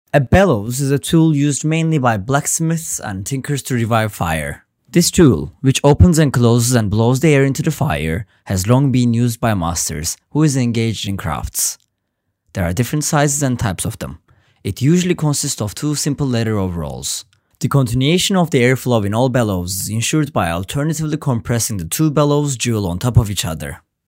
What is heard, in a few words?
english